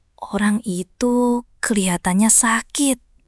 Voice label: indonesia, indonesian negative phrases

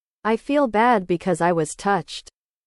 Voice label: english

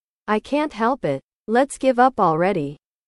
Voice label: english

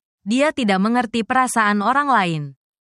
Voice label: indonesian phrases

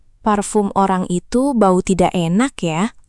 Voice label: indonesia, indonesian negative phrases

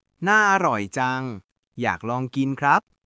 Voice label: Thai, basic Thai phrases

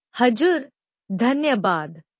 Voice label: Nepali, thank you in nepali

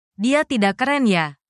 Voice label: indonesia, indonesian negative phrases

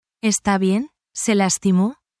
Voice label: spanish, spanish phrases

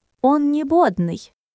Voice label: Russian, russian phrases insults; ロシア語のネガティブなフレーズ

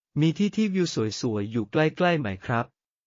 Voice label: Thai, basic thai phrases

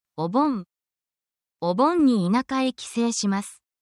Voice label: japanese calendar event talk

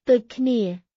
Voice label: Khmer, basic khmer cambodian everyday

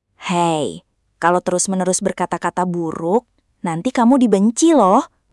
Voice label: indonesia, negative phrases

